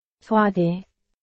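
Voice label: Burmese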